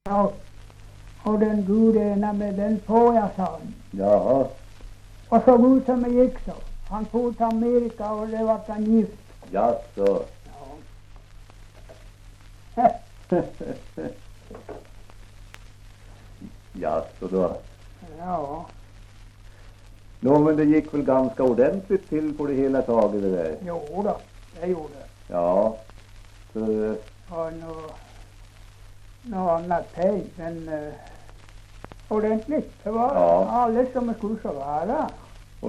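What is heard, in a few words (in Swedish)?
Ovansjö Gästrikland, dialekter, svenska sagor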